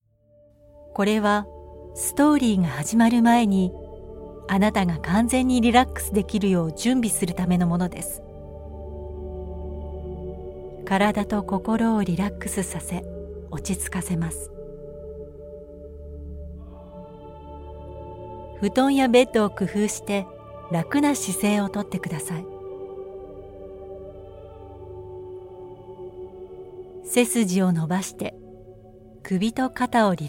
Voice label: Jpn sleep app